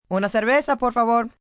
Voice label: Beer Please, Restaurant, bar, foreign, female, Spanish; Talking Sound Effects; Sound Effects:Voice; VoiceBox